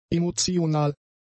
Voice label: "emotional", german; adjectives

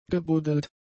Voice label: "gebuddelt", German; adjectives

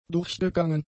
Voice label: "durchgegangen", German; adjectives

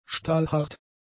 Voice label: "stahlhart", German; adjectives

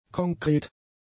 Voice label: "konkret", German; adjectives

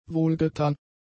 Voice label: "wohlgetan", German; adjectives

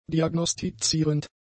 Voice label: "diagnostizierend", german; adjectives

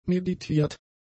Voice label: "meditiert", German; adjectives

